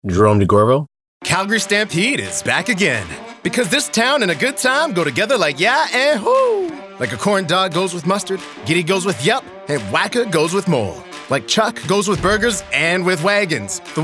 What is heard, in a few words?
Commercial